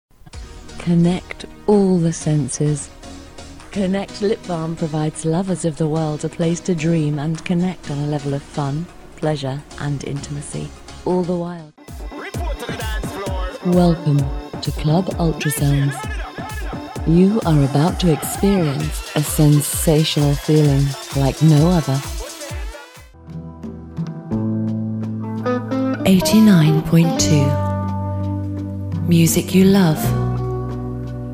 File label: Sultry